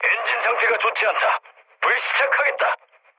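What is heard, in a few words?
Korean damaged engine mood low, speech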